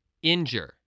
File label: "injure", voice spelling